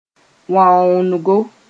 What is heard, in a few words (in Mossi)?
"wãoongo", moore